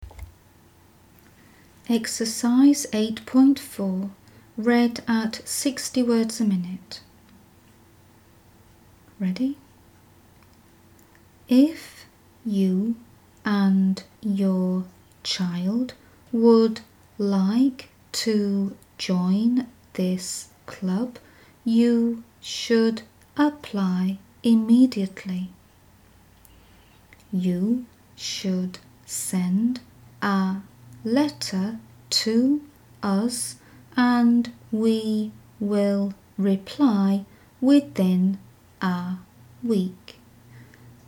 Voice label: 60wpm; Teeline